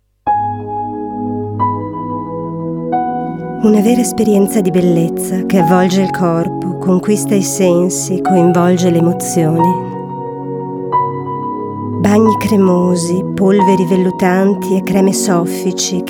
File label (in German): italienisch werbung; sprecher